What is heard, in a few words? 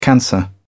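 "cancer", British English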